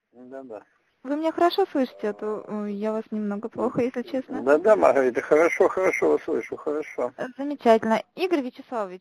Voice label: smiling voice